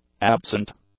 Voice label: "absent", english; adjectives